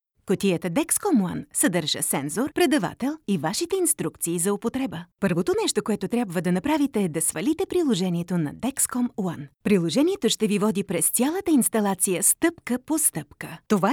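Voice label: Bulgarian, female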